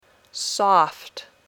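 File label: "soft", English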